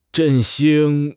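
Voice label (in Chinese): "振兴", Chinese; HSK6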